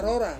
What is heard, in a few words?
"rora", sky, Ansus